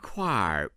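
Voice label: "kuair4", Chinese, male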